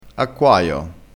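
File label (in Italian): "acquaio", dialettale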